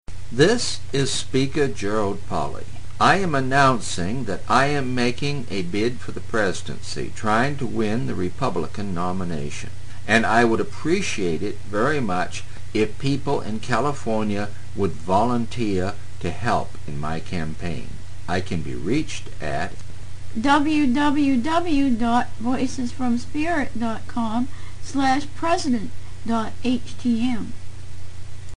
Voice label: California Radio Ad